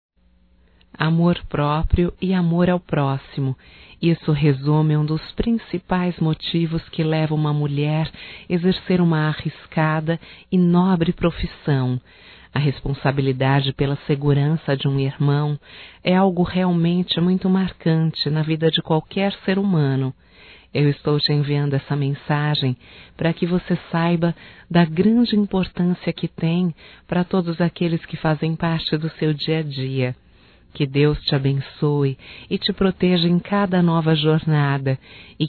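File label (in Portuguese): Homenagem a policial civil, voz feminina; Telemensagens; HOMENAGENS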